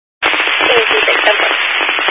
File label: Highway Patrol, Phoenix Metro East Area, scanner